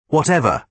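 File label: "whatever", British English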